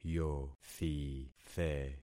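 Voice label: "yothithe", concatenated syllables; Affixing experiment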